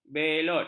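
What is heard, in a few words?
"belot", Malay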